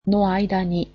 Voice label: "between", japanese

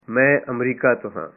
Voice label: punjabi Im from the US

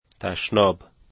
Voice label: "toilet", Dari

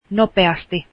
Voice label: quickly, finnish

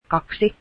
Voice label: two, finnish

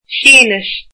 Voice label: "Chinese", irish

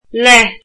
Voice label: with, irish

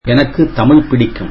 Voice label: tamil I like Tamil

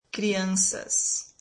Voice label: "children", brazilian

